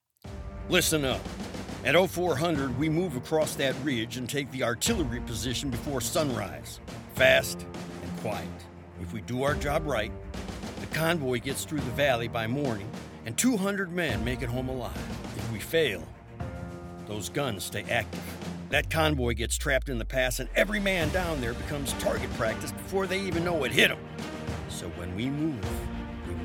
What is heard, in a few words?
Soldier, video game voiceover; Imjin